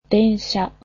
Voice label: "train", Japanese